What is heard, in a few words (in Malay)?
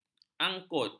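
"angkut", Malay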